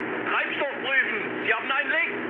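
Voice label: German tank damaged mood high, speech; War Thunder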